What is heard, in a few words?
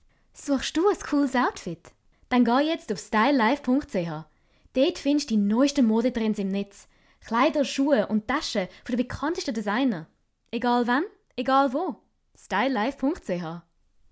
female swiss german commercial; english american german and